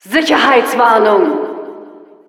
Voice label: Announcer security warning; Administrator

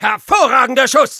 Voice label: Soldier nice shot, de; Hervorragender Schuss!

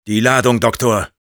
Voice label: activate charge, de; Die Ladung, Doktor!; Sniper

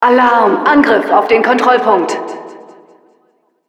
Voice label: Announcer control point warning, de; Alarm! Angriff auf den Kontrollpunkt.

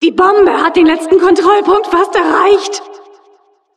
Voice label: Announcer cart attacker final warning, de; Die Bombe hat den letzten Kontrollpunkt fast erreicht!

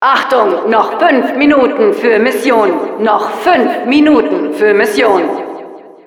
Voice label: Announcer ends 5 min; Achtung! Noch fünf Minuten für Mission, noch fünf Minuten für Mission; Administratorin

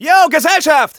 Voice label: Scout incoming, de; Yo, Gesellschaft!

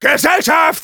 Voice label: Demoman incoming, de

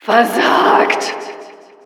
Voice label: Announcer failure, de; "Versagt."; Administratorin

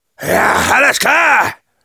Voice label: positive vocalization, de; Demoman

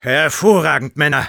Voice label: Sniper cheers, de; "Hervorragend, Männer!"

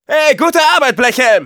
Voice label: Scout thanks for the teleporter, de

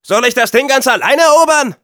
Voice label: Scout help me capture, de

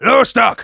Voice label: activate charge, de

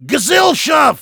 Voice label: Heavy incoming, de

